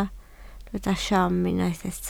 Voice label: "siom", Welsh